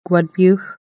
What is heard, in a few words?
native black berry, Lushootseed